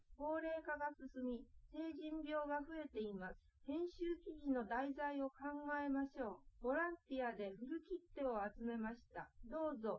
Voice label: female liverec 250ms 1m sim; SiSEC08; SiSEC underdetermined